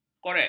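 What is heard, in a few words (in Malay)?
"korek", Malay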